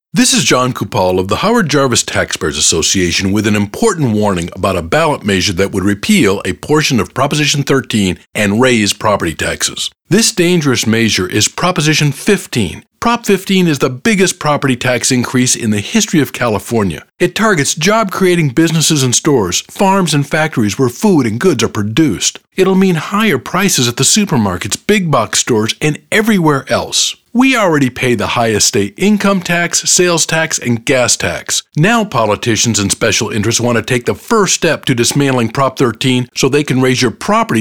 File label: English Radio; No on 15; Groundwork